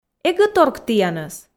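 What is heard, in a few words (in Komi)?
"эг-ӧ торк тіянӧс", Komi, female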